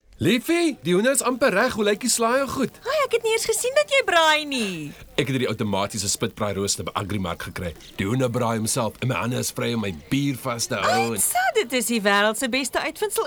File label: hands free spring radio spot; agrimark